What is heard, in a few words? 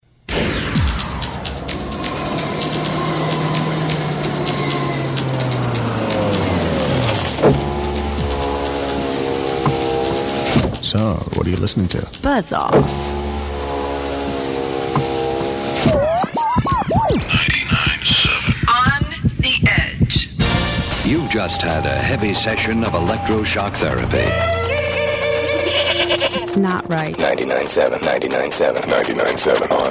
Anti Jingle sweepers